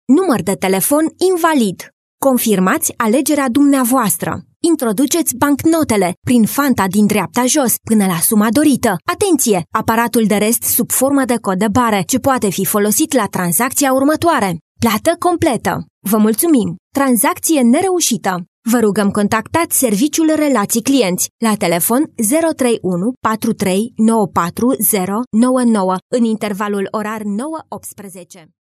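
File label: Romanian, female, explainer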